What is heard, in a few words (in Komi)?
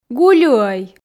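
"гулюӧй", Komi, female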